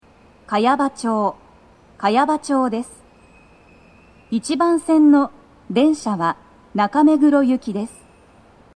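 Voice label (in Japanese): 茅場町駅, 1番線 到着放送, 女声, BOSE天井型での収録です; 放送は「中目黒行」です。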